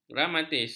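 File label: "gramatis", Malay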